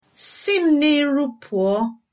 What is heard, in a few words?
go away, igbo